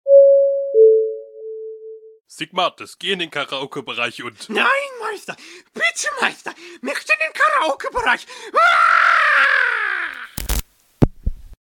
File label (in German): durchsage